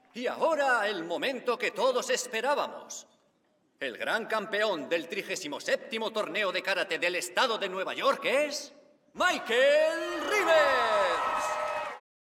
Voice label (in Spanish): muestra de voz, profesor de doblaje; AM Escuela Logroño